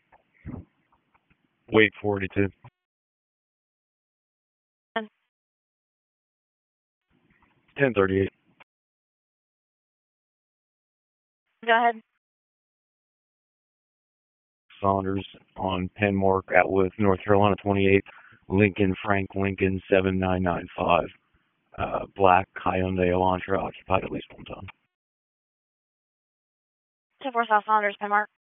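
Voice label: Dispatch, p25; WSO DISP 3; wake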